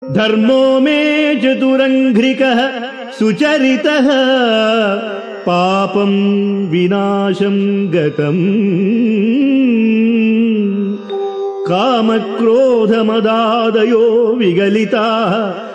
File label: Sanskrit; Sri Adi Sankaracharyas Sivananda Lahari; Adi Shankaracharya; श्रीशिवानन्दलहरी ३९